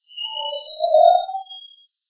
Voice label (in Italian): ho fame urlo; Castello Monselice